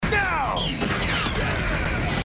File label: Commercial